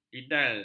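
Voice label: "lidal", Malay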